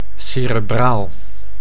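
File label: "cerebraal", Dutch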